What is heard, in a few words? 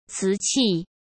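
"瓷器", Mandarin Chinese; chinese-flashcards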